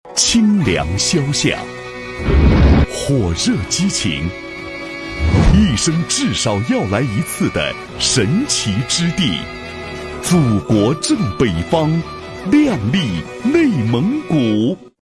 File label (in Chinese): 宣传片; 内蒙古